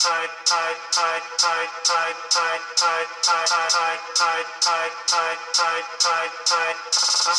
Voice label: 130bpm Broken Vox FX Loops